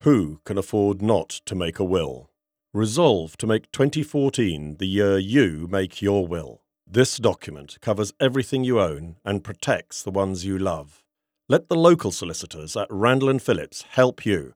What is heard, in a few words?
Radio Advert